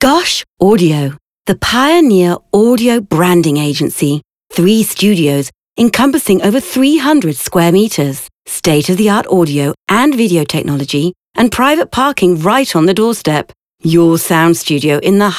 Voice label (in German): plakativ